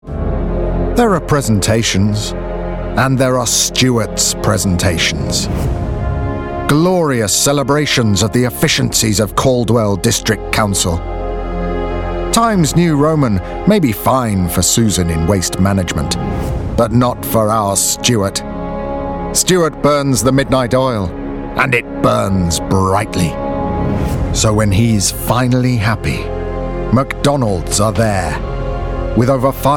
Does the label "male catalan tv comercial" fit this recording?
No